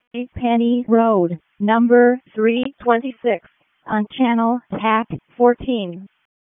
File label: EMS ALERT; wake